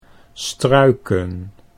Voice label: "struiken", Dutch

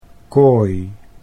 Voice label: "kooi", Dutch

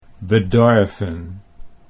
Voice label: "bedorven", Dutch

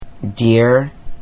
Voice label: deer, Dutch Pronunciation

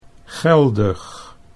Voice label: "geldig", Dutch

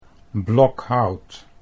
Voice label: "blokhout", Dutch

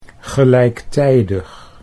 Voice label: "gelijktijdig", Dutch

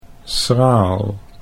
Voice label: "schraal", Dutch

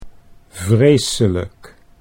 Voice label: "vreselijk", Dutch

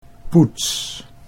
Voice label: "poets", Dutch

